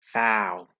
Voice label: "faw", Proto Germanic

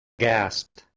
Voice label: "gaest", Anglo Saxon

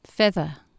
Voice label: "fether", Anglo Saxon

